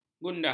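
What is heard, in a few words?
"gundah", Malay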